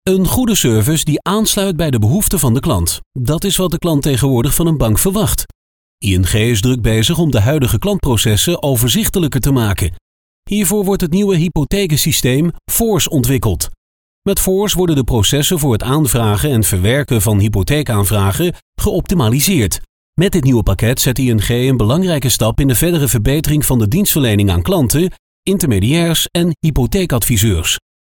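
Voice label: Dutch, male, corporate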